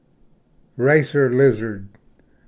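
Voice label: "racer lizard", English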